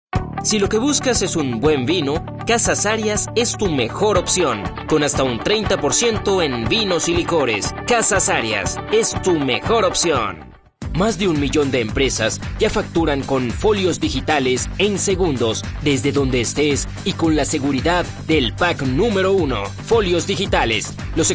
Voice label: 外籍 西语 男 radio commercial

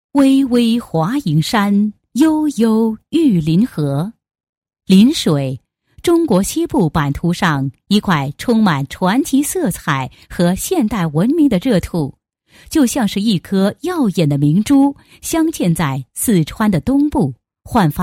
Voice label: 女 教育 专题片; 邻水县学前教育发展

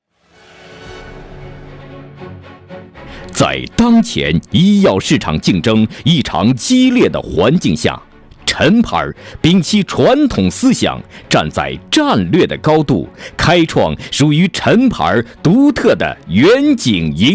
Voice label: 男 大气专题, 国语男声配音; 医药公司; 抒情

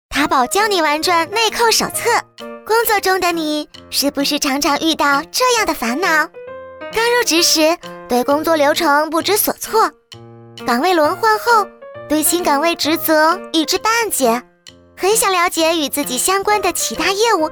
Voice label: 女 女童; 塔宝手册

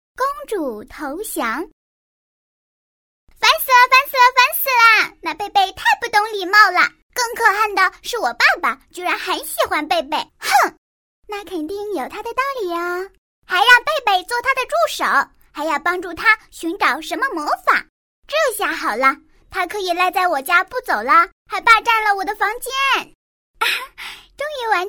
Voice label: 女 动画样音 女孩, 童声

